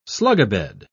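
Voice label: "slugabed", English, British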